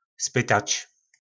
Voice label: "Zpytač (se)", po našimu; Zeptat (se)

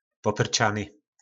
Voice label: "Popyrčany", po našimu; Zbrklý

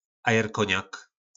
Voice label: "Ajerkóňak", nářečí Po našimu; Vaječný likér

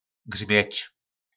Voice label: "grmec", nářečí Po našimu; Hřmět

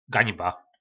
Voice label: "Gaňba", nářečí Po našimu; Hanba

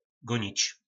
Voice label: "Gonic", nářečí Po našimu; Běhat,Honit

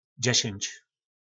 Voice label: "Dźešynč", nářečí po našimu; Deset

